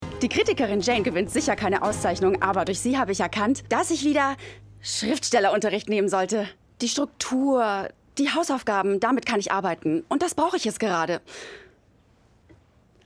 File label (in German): amerikanische Serie Synchron